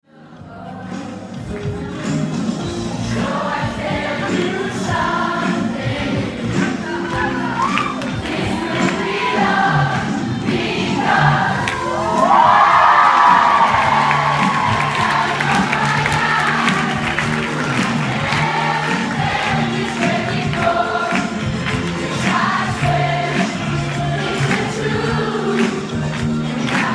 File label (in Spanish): radio; dirty dancing; sing along